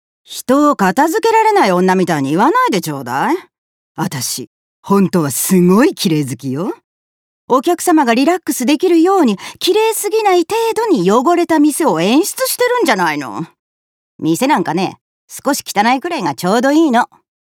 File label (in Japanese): 屁理屈キャラ50代